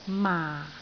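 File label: "maa4", Cantonese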